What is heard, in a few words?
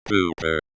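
"pooper", US English; pooper /ˈpuː.pəɹ/ [ˈpʰu.pɚ]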